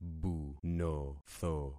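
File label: "bunotho", concatenated syllables; Affixing experiment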